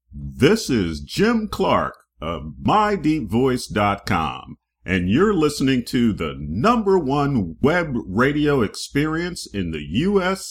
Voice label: Promo; WNJR